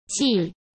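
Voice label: "剂", Mandarin Chinese; chinese flashcards; hsk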